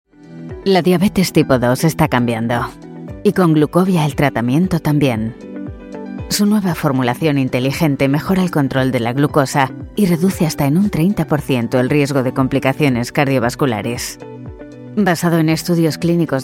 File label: spanish medical